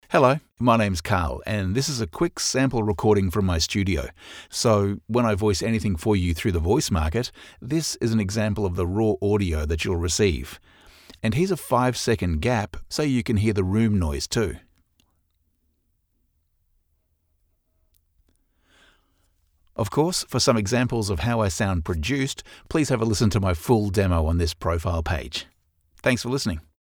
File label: STUDIO SOUND CHECK